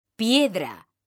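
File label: "piedra", Spanish, female; mekanta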